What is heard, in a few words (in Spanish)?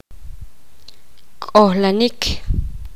poqomam sentarse